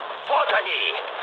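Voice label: Russian enemy detected mood med, speech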